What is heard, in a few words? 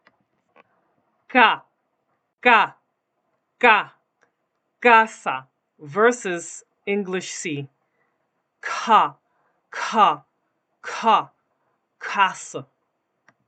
Spanish C Audio